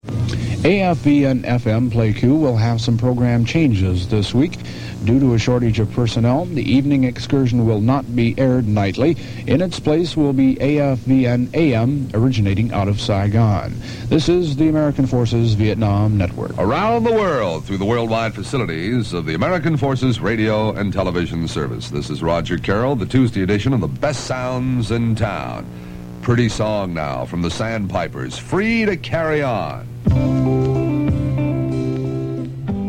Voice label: Announcement; AFVN FM Pleiku; vietnam